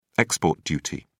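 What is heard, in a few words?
"export duty", British English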